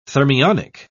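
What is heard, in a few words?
"thermionic", British English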